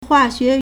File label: "hua4 xue2", Chinese